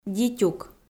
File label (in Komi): "дитюк", Komi